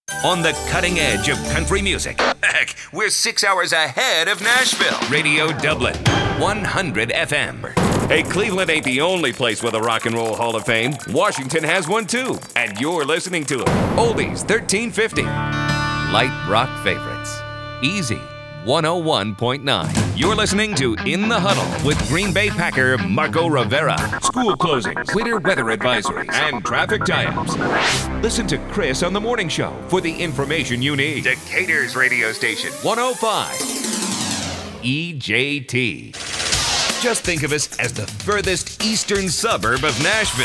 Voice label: imaging, male voice talent

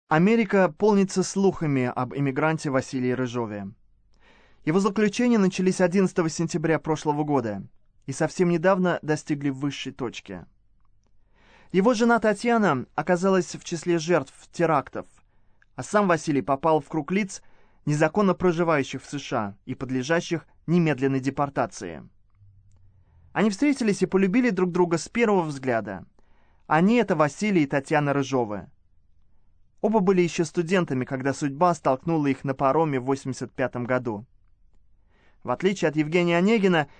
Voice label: russian, Russian voice overs, Male

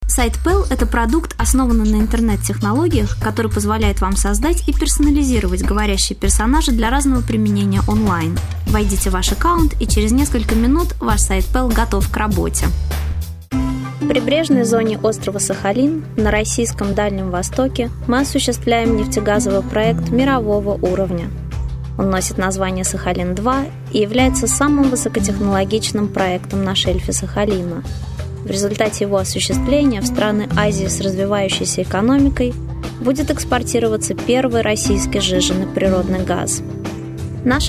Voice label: Russian

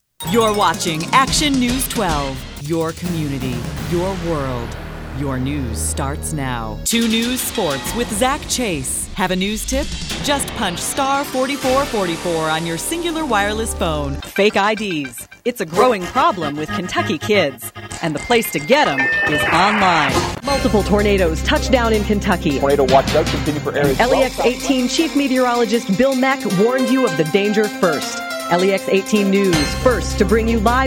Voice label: promo, female voice talent

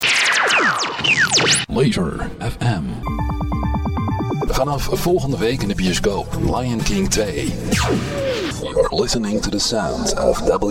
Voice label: dutch

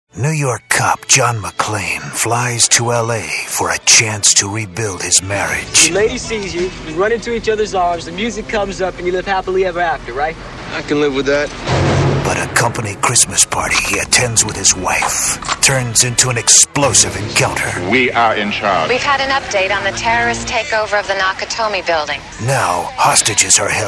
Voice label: promo, male voice talent

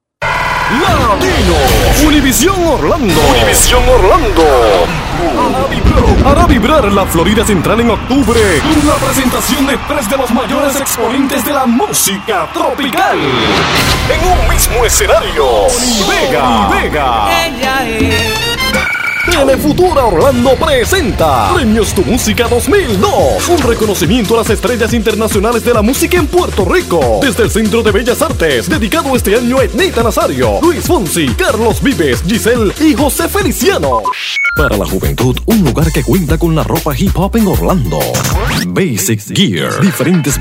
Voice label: spanish imaging

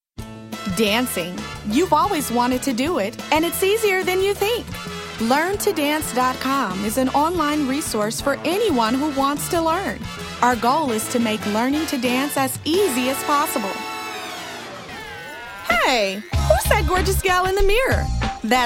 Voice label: African American; foreign languages

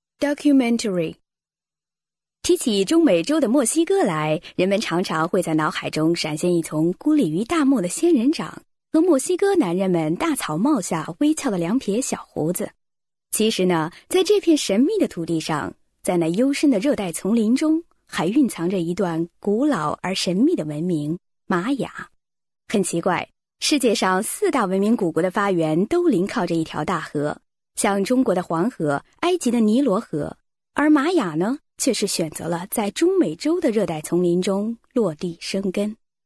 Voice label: chinese

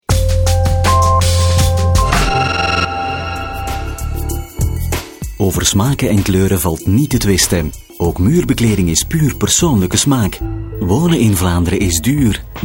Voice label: flemish, Belgian/Flemish voice overs, Male

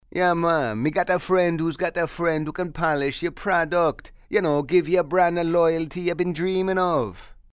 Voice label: Jamaican Accent; foreign languages

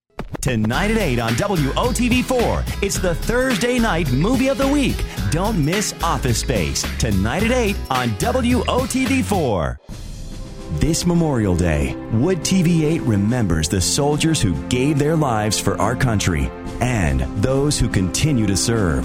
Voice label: promo, male voice talent

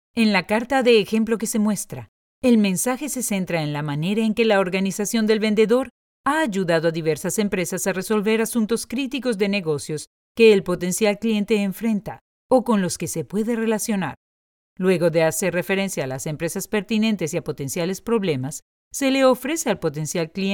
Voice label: Latin American Spanish; foreign languages

